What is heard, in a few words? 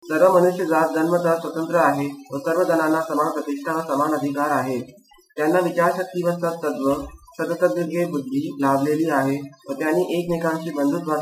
udhr marathi